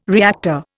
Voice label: "reactor", Indian

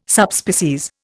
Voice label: "Subspecies", Indian

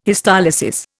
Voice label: "histolysis", Indian

